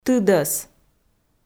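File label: "тыдӧс", Komi, female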